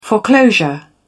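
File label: "foreclosure", en, uk British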